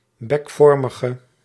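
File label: "bekvormige", Dutch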